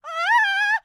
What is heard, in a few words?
Enemy Generic Human Spawn Disbelief